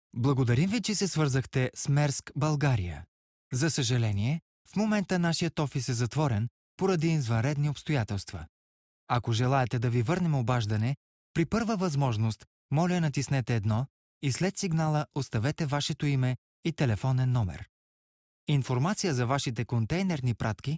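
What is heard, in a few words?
Bulgarian, male, IVR; voice demos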